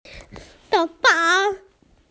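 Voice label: "hair", Mandarin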